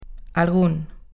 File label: "algun", pron